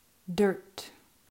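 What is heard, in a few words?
"Dirt", English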